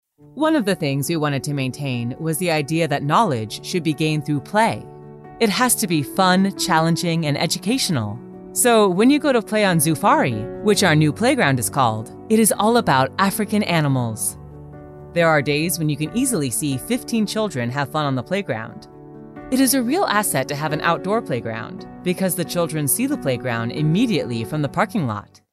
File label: US, speakere